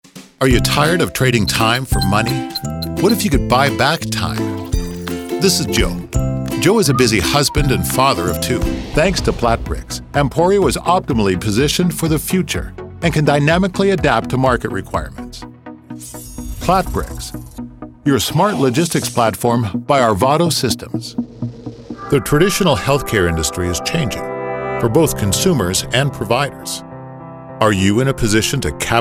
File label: Explainer